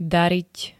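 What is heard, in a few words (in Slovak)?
"dariť", Slovak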